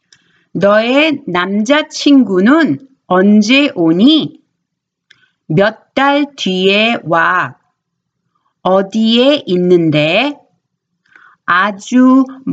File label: Conversation